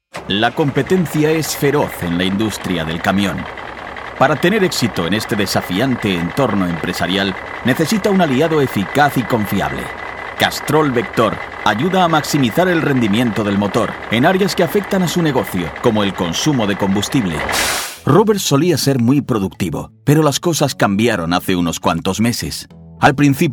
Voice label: Generic Industrial Corporate